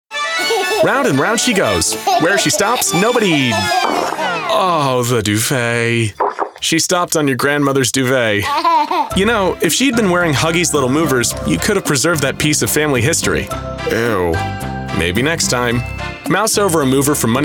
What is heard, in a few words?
Explainer